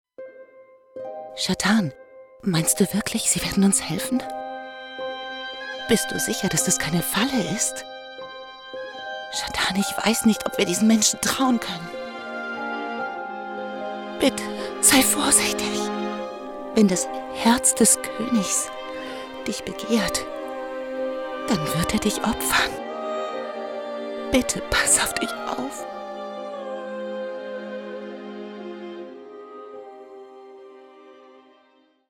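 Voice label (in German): ängstliche Elfe game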